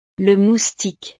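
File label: "le moustique", French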